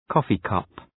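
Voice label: "coffee cup", English; {‘kɔ:fıkʌp}; Ουσιαστικό; φλυτζάνι καφέ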